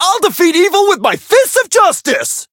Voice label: variable chant